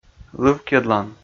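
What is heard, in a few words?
"лывкйӧдлан", Komi, male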